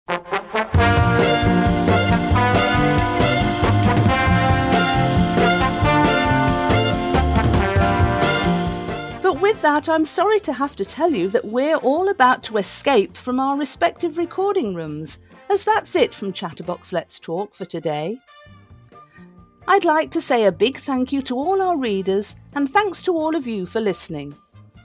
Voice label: Closing Announcement